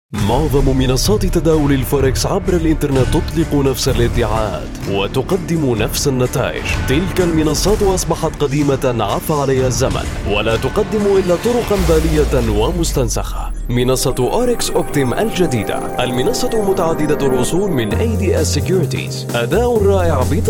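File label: Arabic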